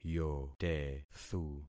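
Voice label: "yotethu", concatenated syllables; Affixing experiment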